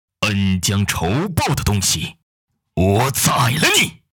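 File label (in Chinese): 恩将仇报 宰了你, 游戏配音 伪音 古风 男 青年 普通话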